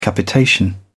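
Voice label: "capitation", English, British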